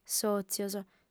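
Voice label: "sòtzios", Sardinian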